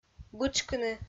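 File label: "бытшкыны", Komi-Zyrian, female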